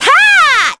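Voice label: Vox, Korean; Cassandra; kingsraid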